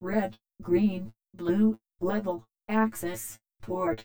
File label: computer words filter